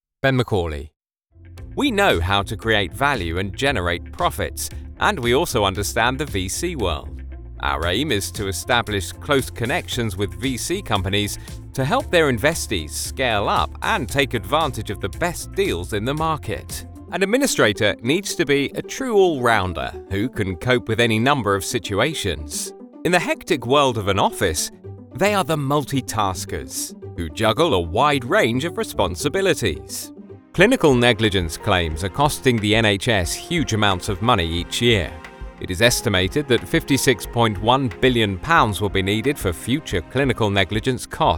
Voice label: Corporate Reel